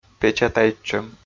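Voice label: "печатайтчӧм", Komi, male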